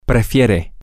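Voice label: you prefer, Spanish, male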